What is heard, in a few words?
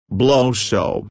"blow chow", estados unidos; girias